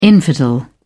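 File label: "infidel", British English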